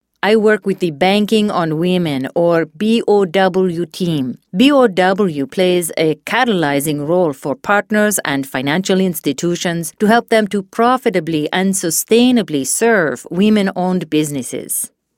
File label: Mexican